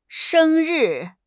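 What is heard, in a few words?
"sheng1 ri4", Mandarin Chinese; HSK2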